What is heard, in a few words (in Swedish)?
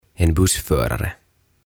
"En Bussförare", "Linja Autonkuljettaja"